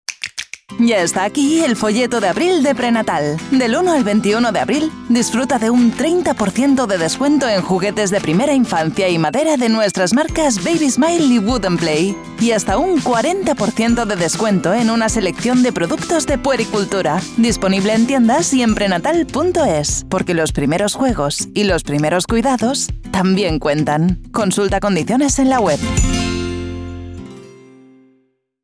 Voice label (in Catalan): locucio; PRENATAL; ofertas vigentes